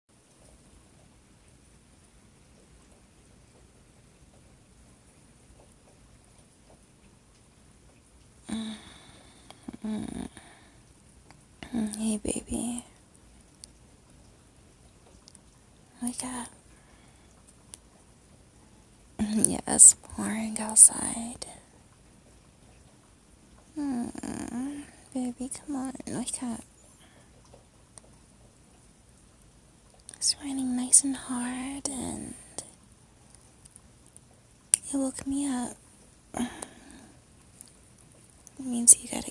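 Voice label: [F4M] Rainy Morning, female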